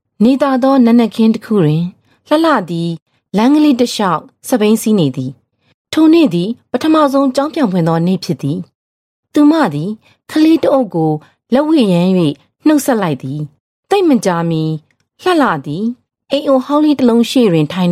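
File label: F Burmese Narration, female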